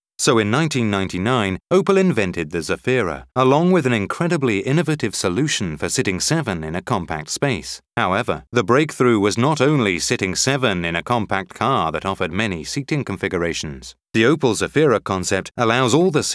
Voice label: english british commercial

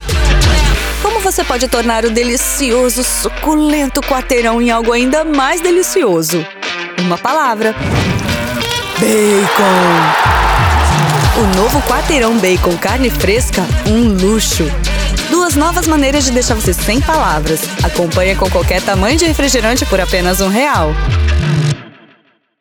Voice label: portuguese brazilian promos

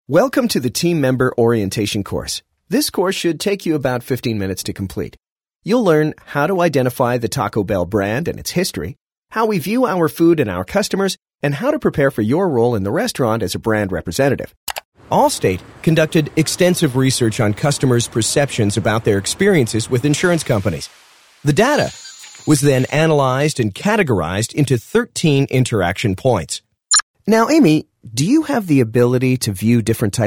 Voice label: english american training e-learning

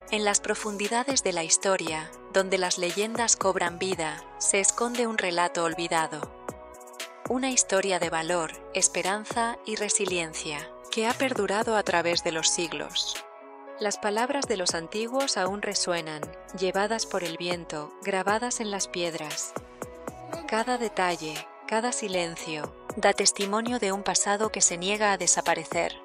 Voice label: spanish narration

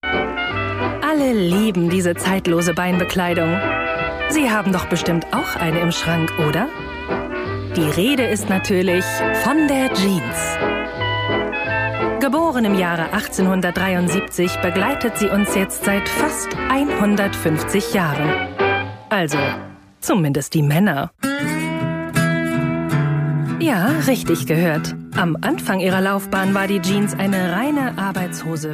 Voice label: german narration

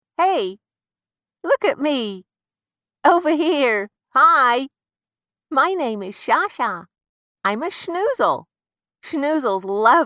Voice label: english british animation

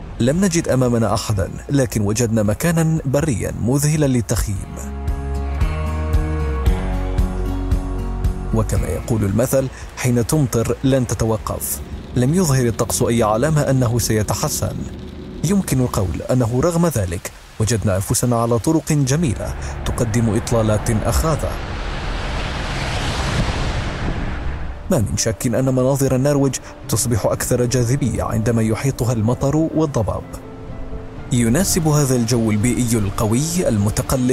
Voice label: arabic documentaries

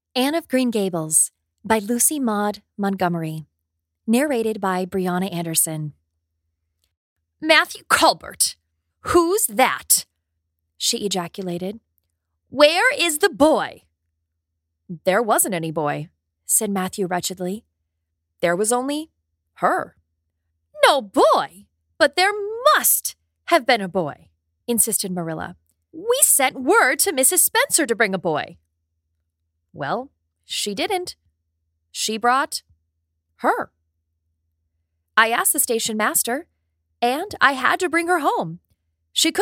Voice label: english american audiobooks

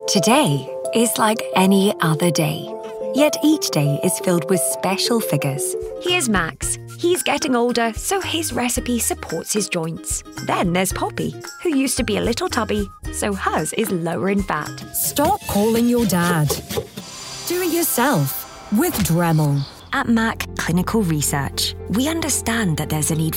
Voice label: english scottish others

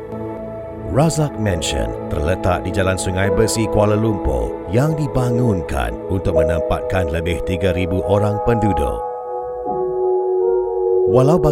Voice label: malay narration

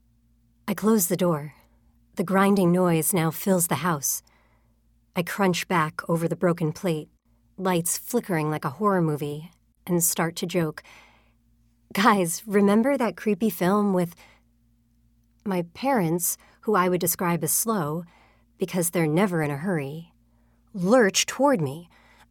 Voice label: english american audiobooks

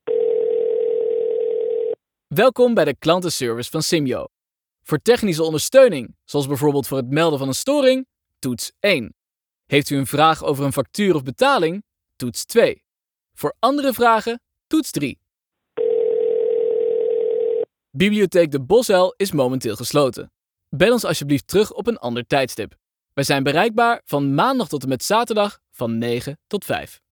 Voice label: dutch ivr

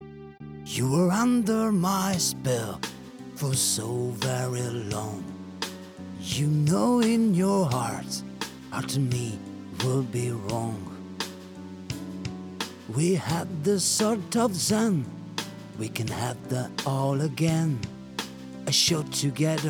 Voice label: english tv shows

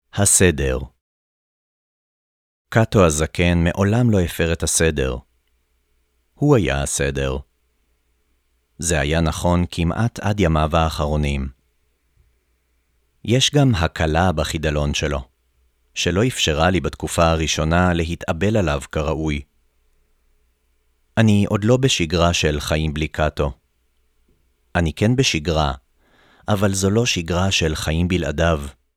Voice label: hebrew audiobooks

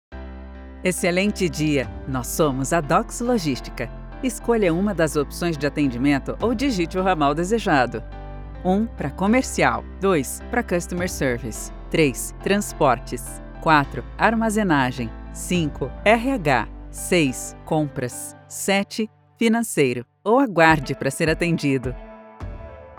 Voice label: Portuguese Brazilian IVR

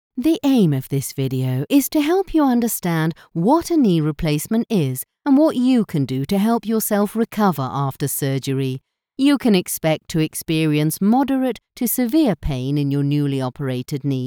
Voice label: english british explainer videos

